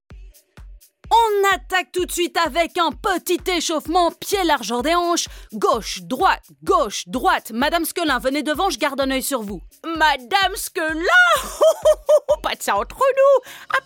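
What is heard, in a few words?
French Characters; GoLocalise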